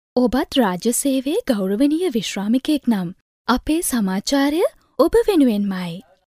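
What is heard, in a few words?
Sinhala female voice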